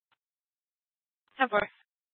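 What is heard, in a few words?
Scottsdale Police South Dispatch; Scttsdle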